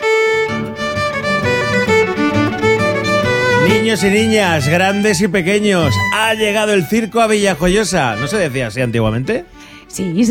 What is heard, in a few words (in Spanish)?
entrevista, radio; circo raluy villajoyosa; Radio Sirena COPE